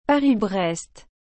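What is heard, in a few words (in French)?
"Paris Brest", French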